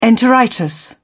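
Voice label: "enteritis", British English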